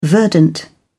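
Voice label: "verdant", British English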